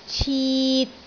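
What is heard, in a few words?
"cit3", Cantonese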